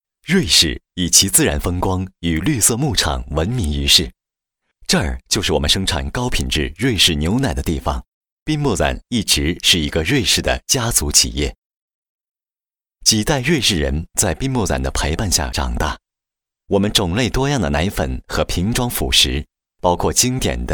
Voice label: chinese mandarin corporate videos